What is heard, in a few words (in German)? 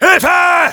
Demoman help me, de